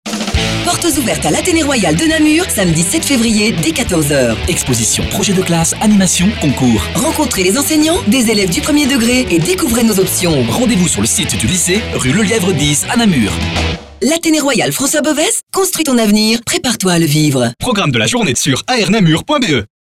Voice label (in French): spot radio